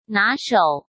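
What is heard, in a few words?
"拿手", Mandarin Chinese; chinese-flashcards; hsk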